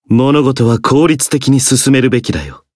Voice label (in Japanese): Voice variable chant jp; zeviro